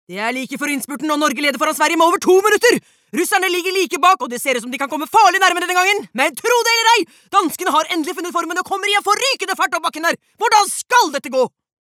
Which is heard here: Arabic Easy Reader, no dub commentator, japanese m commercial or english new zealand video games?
no dub commentator